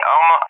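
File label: "armor", English speech; Allied Flight; DCS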